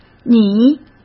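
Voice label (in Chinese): "你", Mandarin Chinese; chinese flashcards; hsk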